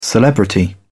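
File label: "celebrity", British English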